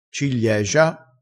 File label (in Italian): "cigliegia", crespinese